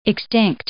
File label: "extinct", English